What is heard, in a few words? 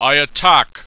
attack, heroic